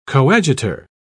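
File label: "coadjutor", English, British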